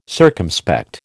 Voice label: "circumspect", American

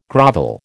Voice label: "grovel", American

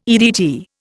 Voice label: "EDT", Indian

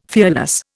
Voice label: "fearless", Indian

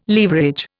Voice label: "leverage", Indian

